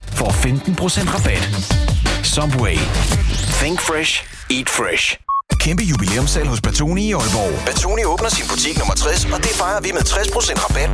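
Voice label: Danish commercial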